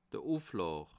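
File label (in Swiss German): "uflaw", Bas Rhin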